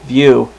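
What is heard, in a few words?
"view", male; Web Clip Empire 150,000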